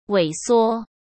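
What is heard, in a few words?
"萎缩", Mandarin Chinese; chinese flashcards; hsk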